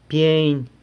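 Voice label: "pyeiny", San Lucas Quiaviní Zapotec; altar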